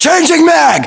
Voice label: inform reloading generic, US